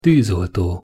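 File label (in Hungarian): "tuzolto", Hungarian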